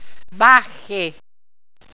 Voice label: "bache", Walser